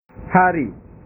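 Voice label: "hari", Indonesian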